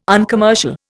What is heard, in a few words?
"uncommercial", Indian